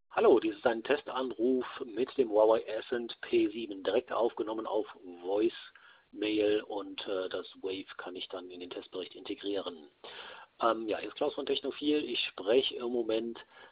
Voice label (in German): Ascend P7 normal und Freisprechen